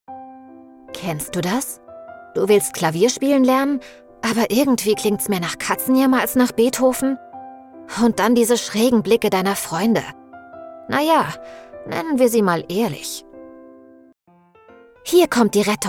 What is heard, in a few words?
App Trailer, Commercial, Presentation, German; Trailer Keasy App